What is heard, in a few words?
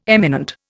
"eminent", Indian